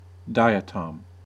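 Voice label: "diatom", US English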